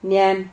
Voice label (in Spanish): "nian", Silabas